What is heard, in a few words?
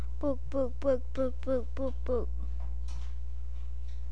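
"book", spoken word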